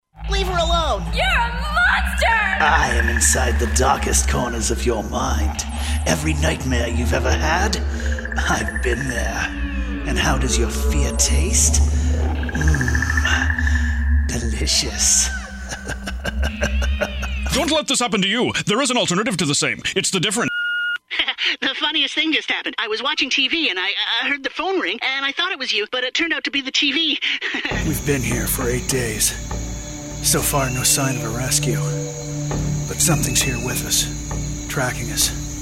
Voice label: Animation Video Game

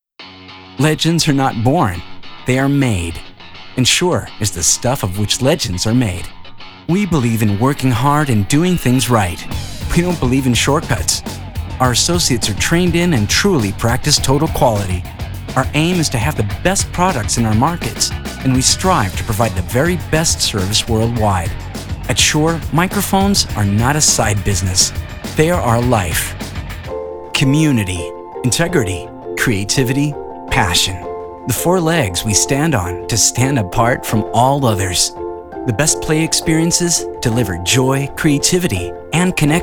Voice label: Corporate English

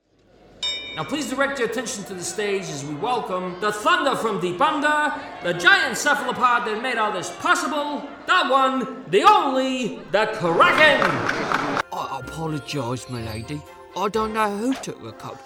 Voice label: Animation

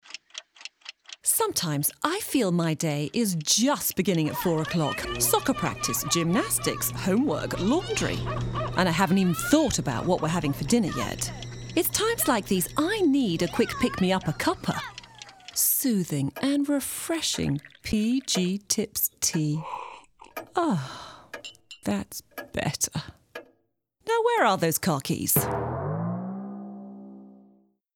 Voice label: Commercial British Accent

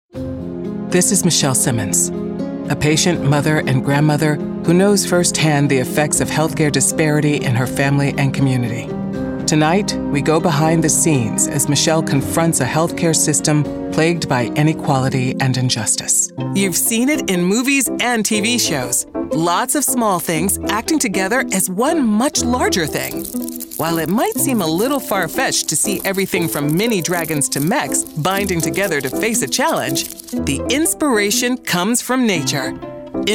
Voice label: Documentary

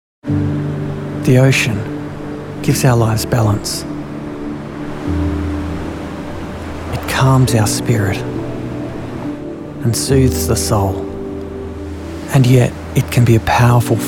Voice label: Narration

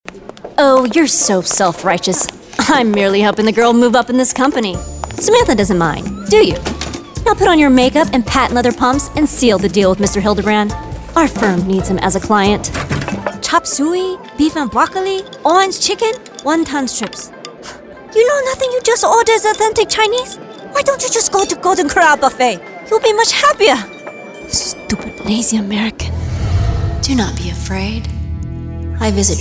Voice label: Character

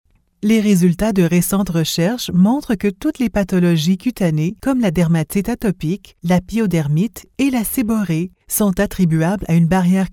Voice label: Medical French

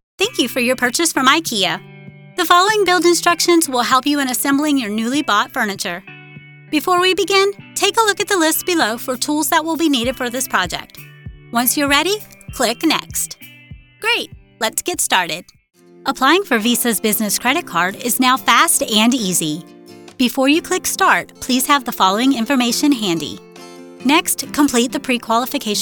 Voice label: E-Learning

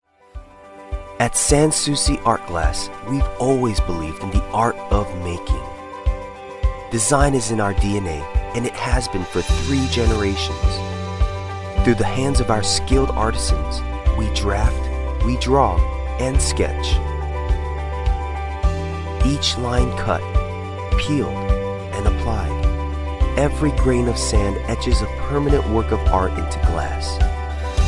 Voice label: Male Commercial Calm

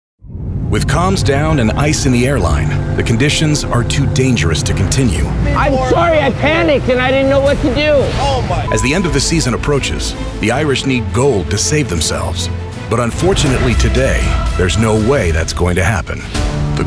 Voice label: TV Narration

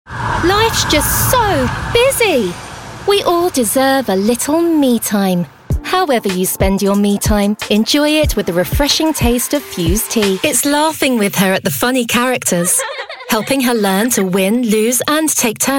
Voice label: Commercial

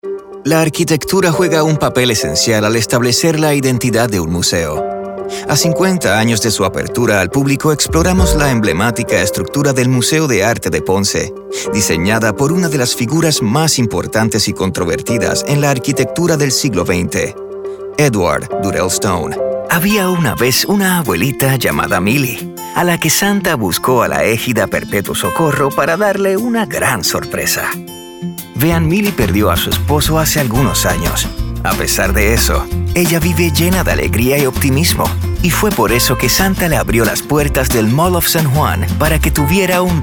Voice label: Narration Spanish

